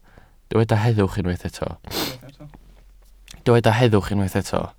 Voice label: "heddwch", Welsh